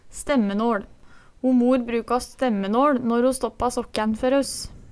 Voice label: stemmenåL, Numedalsmål

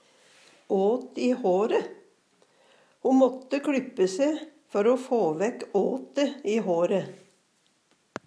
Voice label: "åt i håre", Numedalsmål

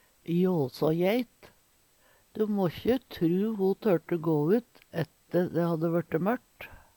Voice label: "jåsåjeit", Numedalsmål

